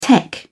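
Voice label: "tech", British English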